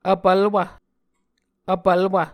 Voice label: "vpvlwv", muscogee

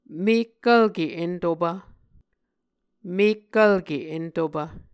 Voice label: "mekkvlke entopv", Muscogee

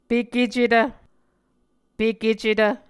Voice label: "pekecetv", Muscogee

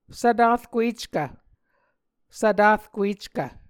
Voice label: "svtarkueckv", Muscogee

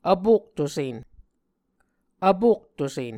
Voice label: "vpoktusen", muscogee; Mvskoke Words with Nasalized Vowels

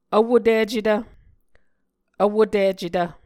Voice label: "vwoticetv", Muscogee

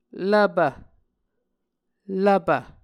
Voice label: "lvpv", Muscogee

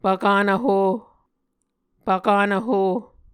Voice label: "pvkanvho", Muscogee

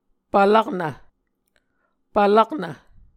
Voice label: "pvlaknv", Muscogee

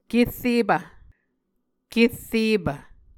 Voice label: kerrepv, muscogee

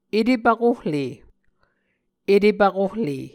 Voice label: "etepvkohle", Muscogee

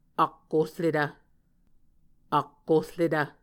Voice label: "akkorretv", Muscogee; Mvskoke Words with Repeated Consonants; Onvkv Ohhvyayices; A Dictionary of Creek/Muskogee; SIL International

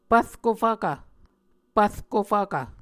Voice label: "pvrko fvkv", Muscogee

